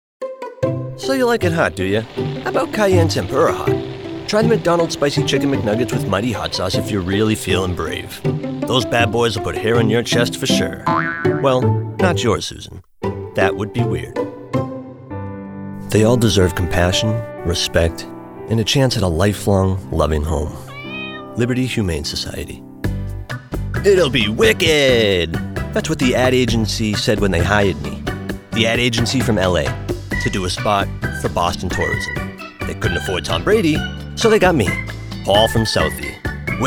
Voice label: Commercial